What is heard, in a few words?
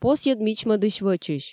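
"посйӧд мичмӧдысь-вӧчысь", Komi, female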